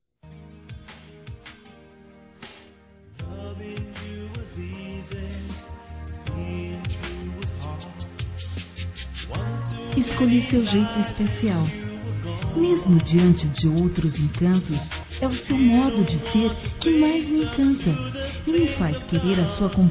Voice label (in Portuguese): Não seja Ciumento Feminino; Ciúmes; Telemensagens